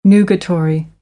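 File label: "nugatory", American English; AmericanBritish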